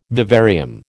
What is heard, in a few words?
"vivarium", American